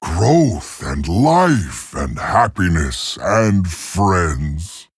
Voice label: Male Tauren